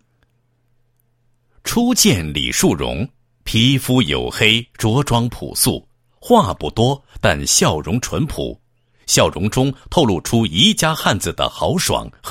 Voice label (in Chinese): 男 人物专题, 国语男声配音